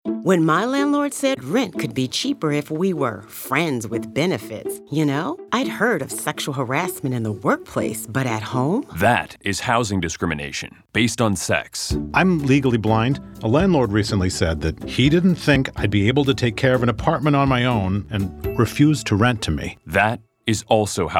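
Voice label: Radio English National; NFHA-HUD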